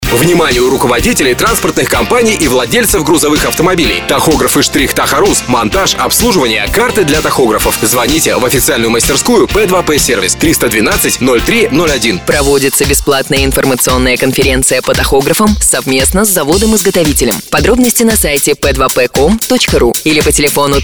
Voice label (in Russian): Рекламный ролик партнерской мастерской